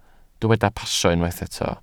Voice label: "pasio", Welsh

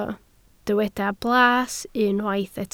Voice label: "blas", Welsh

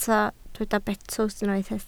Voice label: "betws", Welsh

